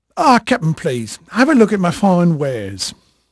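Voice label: English male, voice; Pirates Of The Caribbean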